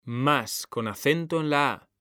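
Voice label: "más", Spanish, male; mekanta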